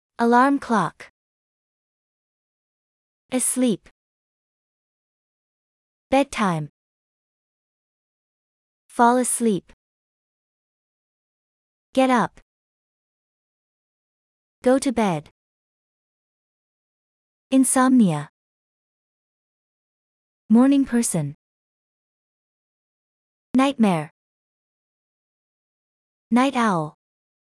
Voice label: sleep, usa